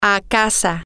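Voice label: Spanish, to home, female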